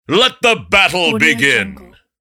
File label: announcer saying let the battle begin